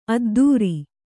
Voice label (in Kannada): "ಅದ್ಧೂರಿ", kannada